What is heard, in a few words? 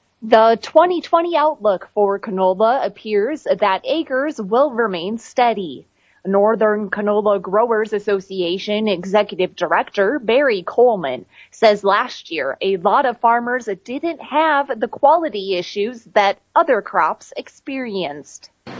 air check; Northern Canola Growers